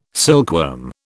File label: "silkworm", British